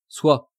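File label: "sois", French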